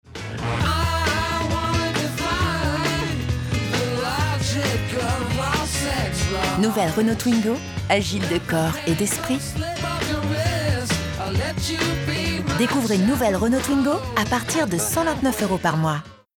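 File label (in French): decouverte posee souriante, voix off féminine; Renault Twingo; Complice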